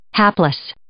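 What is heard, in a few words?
"hapless", English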